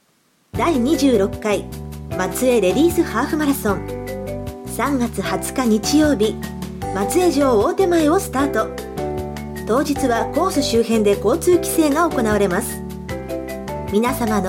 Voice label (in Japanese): ナレーション; MC・ナレーター事務所COA